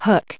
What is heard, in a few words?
"hook", en us